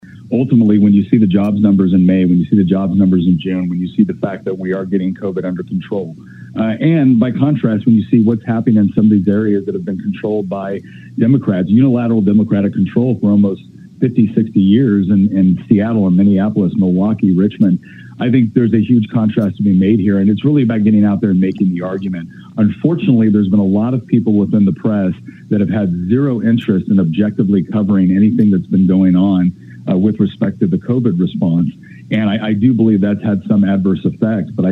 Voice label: Radio interview; Nick Freitas; WMAL radio interview